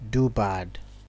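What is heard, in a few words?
"do bad", Nigerian Pidgin, male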